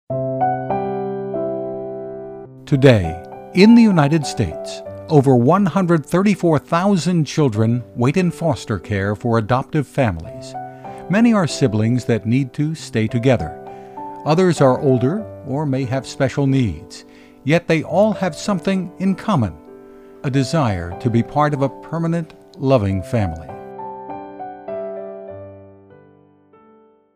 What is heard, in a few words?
Warm